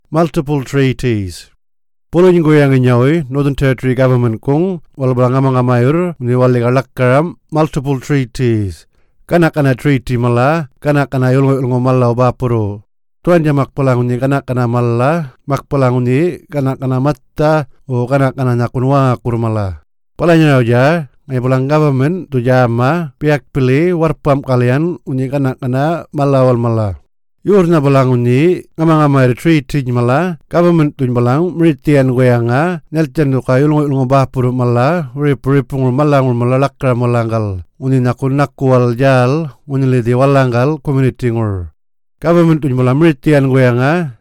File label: Yolngu Matha; Multiple Treaties